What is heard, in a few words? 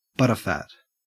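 "butterfat", Australian English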